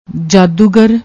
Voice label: "jaadoogar", Punjabi